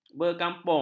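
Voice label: "berkampung", Malay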